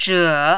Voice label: "zhe3", mandarin